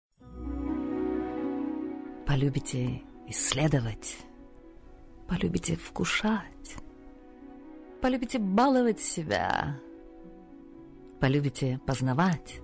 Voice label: female, Russian and Ukrainian, Russian compilation